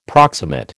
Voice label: "proximate", American